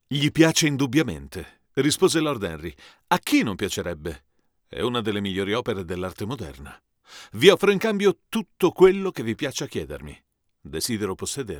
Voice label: X1 USB